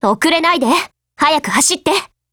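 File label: LOADING Japanese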